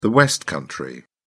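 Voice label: "west country", British English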